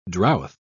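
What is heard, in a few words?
"drouth", British English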